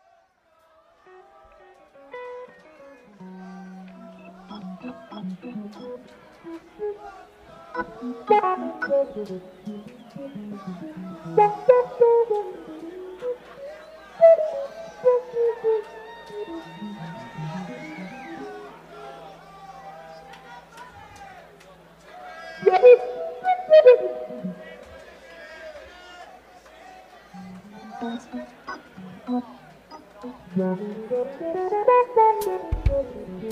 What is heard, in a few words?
Chatter; Golden Ticket Show